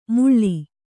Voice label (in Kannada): "ಮುಳ್ಳಿ", Kannada